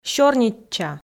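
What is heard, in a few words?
"сёрнитча", Komi, female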